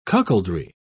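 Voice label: "cuckoldry", British English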